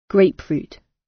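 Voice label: "grapefruit", British; Flora and fauna, agriculture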